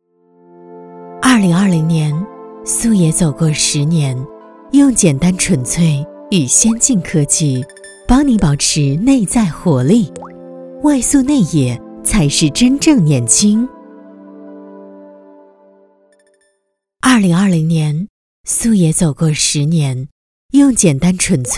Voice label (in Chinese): 品质广告